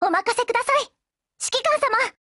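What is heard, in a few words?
BLACK ACTION Japanese, Voice; GF Alarm; Danuvia 43M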